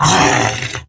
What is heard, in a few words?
Pain Grineer Wolf, en; Battle Barks